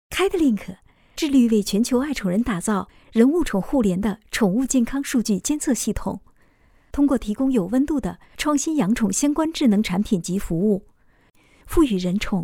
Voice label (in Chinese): 宠物服务平台广告